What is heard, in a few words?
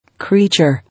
"Creature", English